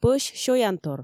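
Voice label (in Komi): пӧсь сёянтор, Komi, female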